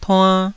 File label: "tuann2", taiwanese